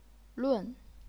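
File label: "lun", fourth tone, chinese pinyin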